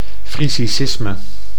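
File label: "frisisime", Dutch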